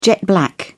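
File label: "jet black", British English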